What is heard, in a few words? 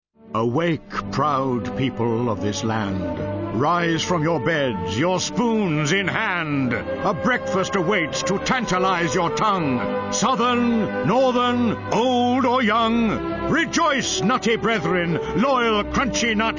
Commercials